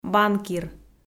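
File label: "банкир" Komi, female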